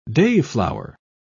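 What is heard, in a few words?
"dayflower", English, British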